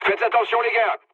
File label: near waypoint mood low, speech fr; War Thunder